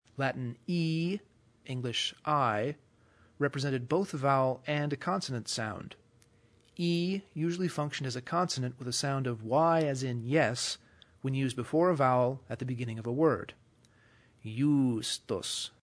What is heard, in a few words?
Latin i English i